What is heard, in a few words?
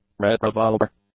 "retrobulbar", English; adjectives